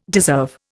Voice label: "deserve", Indian